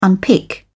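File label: "unpick", British English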